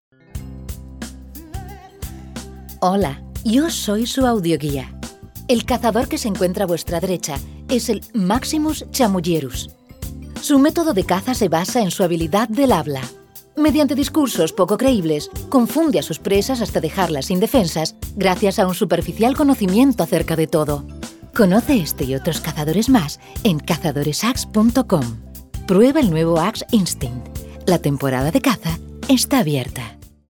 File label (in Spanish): espanola, hablados, acting